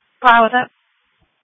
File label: Sheriff Dispatch